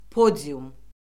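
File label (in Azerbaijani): "podium", Azerbaijani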